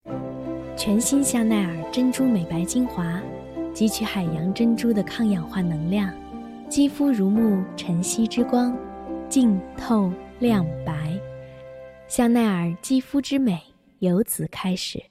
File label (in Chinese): 商配; 香奈儿